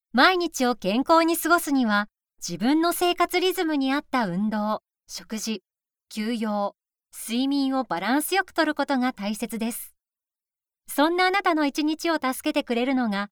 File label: female